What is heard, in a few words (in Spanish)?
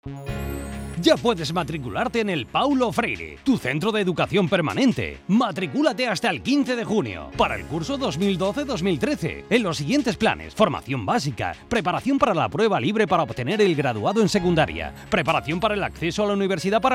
publicidad matriculacion